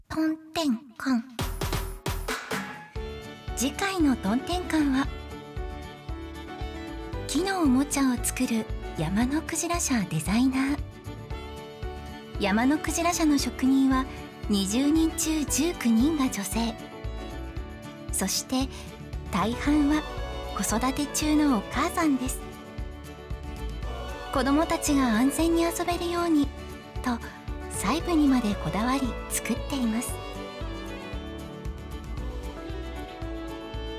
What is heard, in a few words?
narration